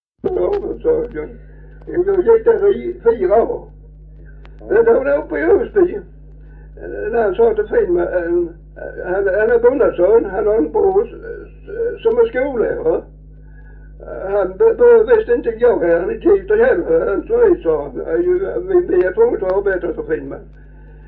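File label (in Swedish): Farstorp Skåne, dialekter; Folkminnen; Svenska sagor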